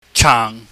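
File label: "chaang", Cantonese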